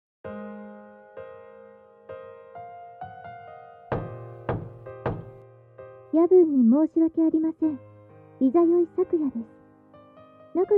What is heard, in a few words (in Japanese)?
DOKIDOKI添い寝ボイス(Voice)